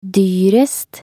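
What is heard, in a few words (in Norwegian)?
"dyrest", Norwegian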